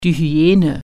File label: "hyena", german